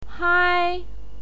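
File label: "hai", Mandarin Chinese pinyin, first tone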